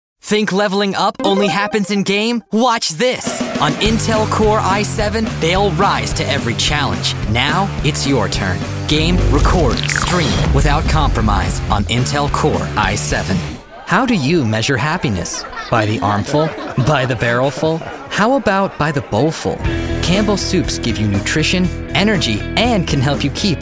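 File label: Commercial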